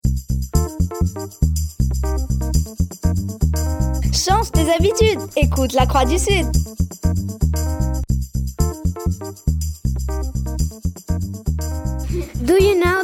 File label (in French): emission anglais